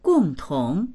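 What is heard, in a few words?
"gong4tong2", Mandarin Chinese; 共同; 共同の、共通の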